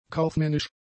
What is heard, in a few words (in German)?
"kaufmännisch", German; adjectives